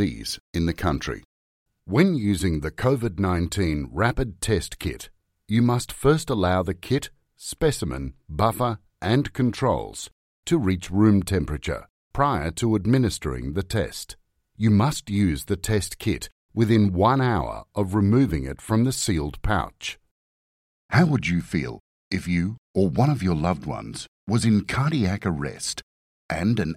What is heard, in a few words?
english australian narration